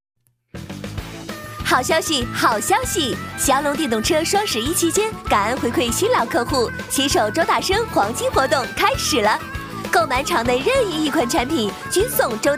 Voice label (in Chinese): 广告 促销 电动车 促销广告 年轻, 女国